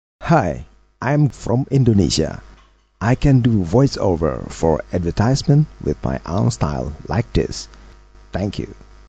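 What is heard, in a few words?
english indonesian male voice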